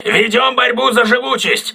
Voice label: voice message repairing, crew ships russian